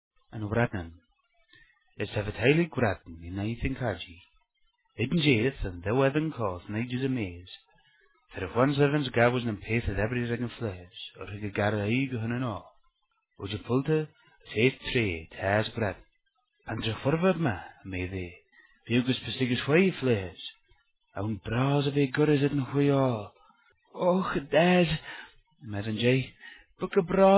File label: kernowek